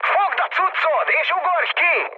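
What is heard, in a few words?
Hungarian bailout mood high, speech; War Thunder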